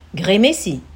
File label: "Grémési", French Guianese Creole